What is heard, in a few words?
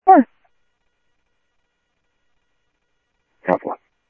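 Sheriff East County, scanner audio trunk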